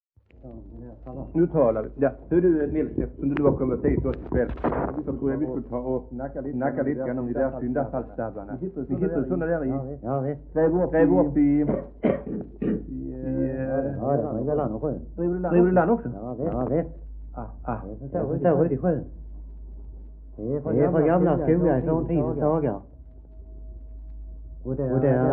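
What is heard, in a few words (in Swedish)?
Degeberga Skåne, svenska sagor dialekter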